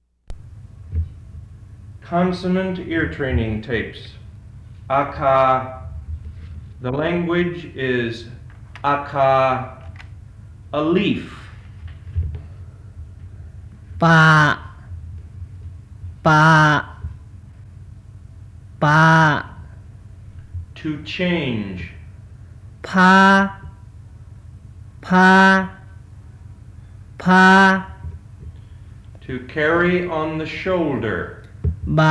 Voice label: Akha